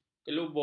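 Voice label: "kelubung", Malay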